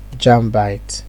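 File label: "jambite", Nigerian Pidgin, male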